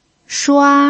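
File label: "shua", Mandarin Chinese pinyin, first tone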